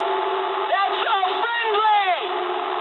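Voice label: English player friendly fire mood medium; War Thunder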